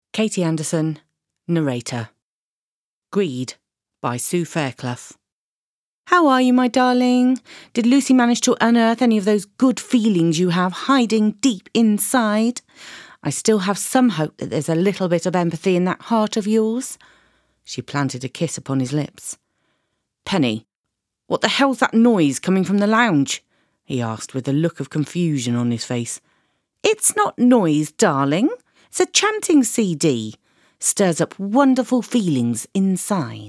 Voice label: Audiobook

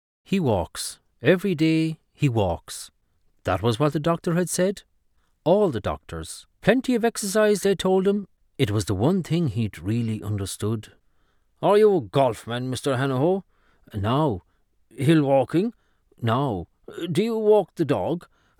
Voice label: Adult Narration; Roddy Doyle

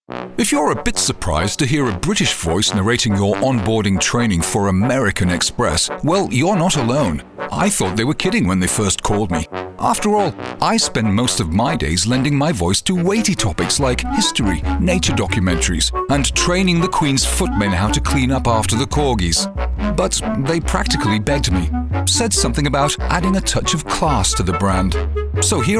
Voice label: E Learning

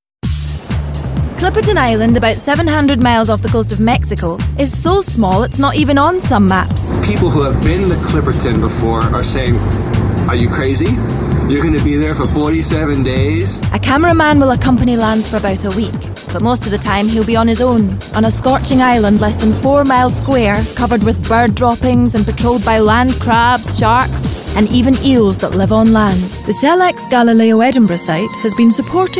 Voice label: Narrative Reel

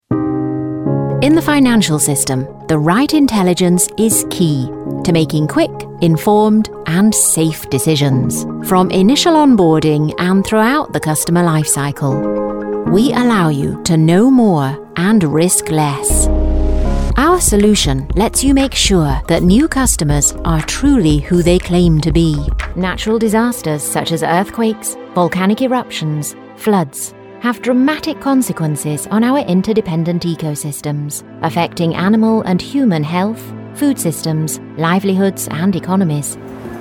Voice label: Corporate

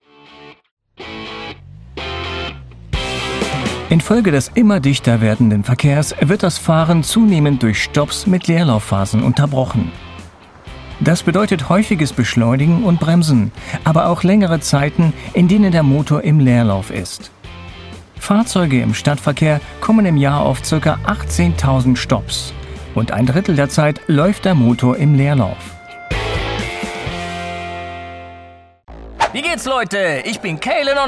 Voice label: commercial

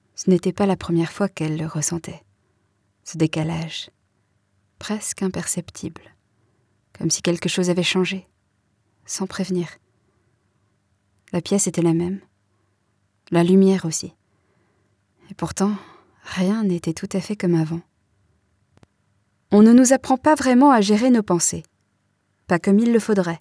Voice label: Audiobook French

